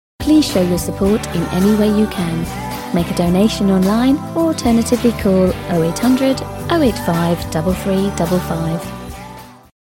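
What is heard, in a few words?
English UK, Commercial; GoLocalise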